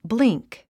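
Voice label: "blink", English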